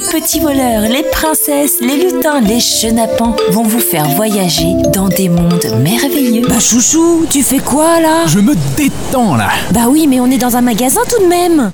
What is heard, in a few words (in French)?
Radio 30 secondes, voix feminines; voixoff